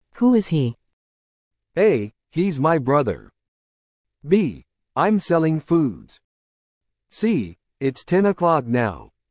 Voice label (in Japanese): ノーマル, リスニング; You will hear a question or statement and three responses spoken in English